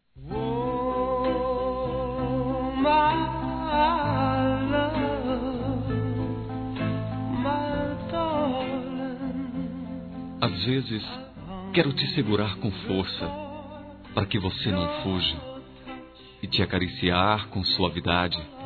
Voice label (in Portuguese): Picante Masculino; Telemensagens